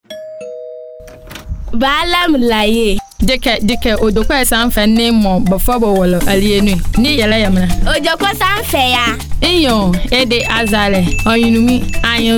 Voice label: Radio Working Women AHANTA